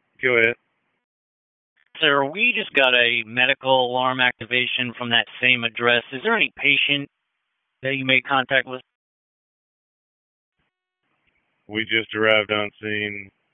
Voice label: FIRE OPS, p25; wake

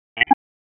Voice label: Police Tac, p25; Raleigh; Southeast District Tac 1; wake

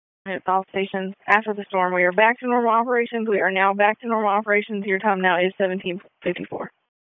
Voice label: EMS ALERT, p25

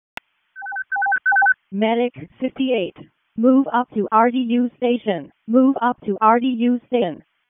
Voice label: EMS ALERT

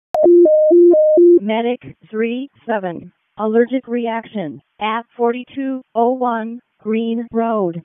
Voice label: WC EMS ALERT, p25; wake

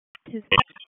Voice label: Police Spec Ops Tac, p25; Raleigh; Tac 4

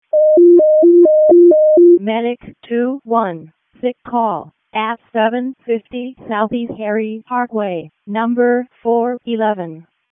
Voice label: EMS ALERT, p25; wake

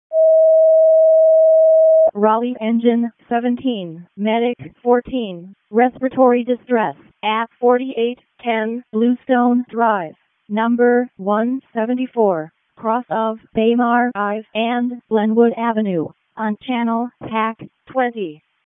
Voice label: RFD ALERT, P25 recordings; wake

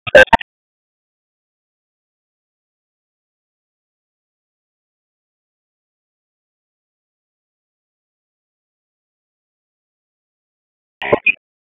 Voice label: Police Southeast District Tac 2; Raleigh; p25; wake

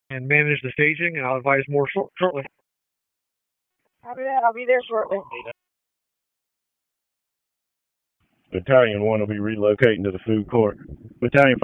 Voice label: PS TAC, p25

